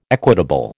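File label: "equitable", American English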